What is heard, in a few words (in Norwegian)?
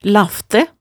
"laftæ", hemsedalsmaalet tale